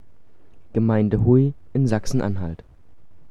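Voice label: "Huy", German pronunciation; Хю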